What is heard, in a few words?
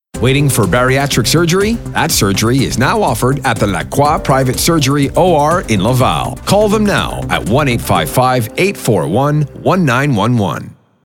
male voice; Clinique Medicale Lacroix; Bariatric Surgery; radio et tele